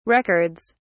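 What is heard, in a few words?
"records", British English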